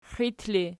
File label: xiit erg, archi